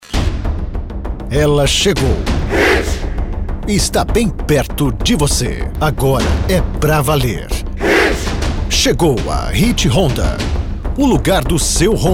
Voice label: portuguese brazilian movie trailers; brazilian portuguese voice actors